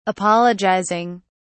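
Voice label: "apologising", British English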